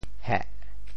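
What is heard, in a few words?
"heh4", Teochew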